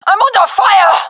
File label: taking fire, gendarmerie fem; vo